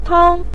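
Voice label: "tang", Mandarin Chinese syllable, neutral tone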